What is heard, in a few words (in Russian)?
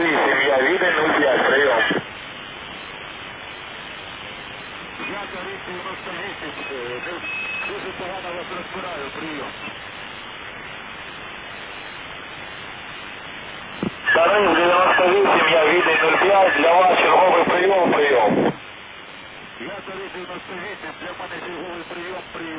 2017 8 fevrala castota 3896 kgc n-u ukrainskaa set